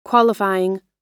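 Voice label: "qualifying", US English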